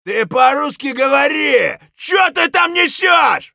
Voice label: scav battlecry; eft